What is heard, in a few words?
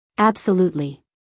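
"absolutely", American English, female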